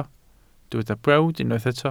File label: "brawd", Welsh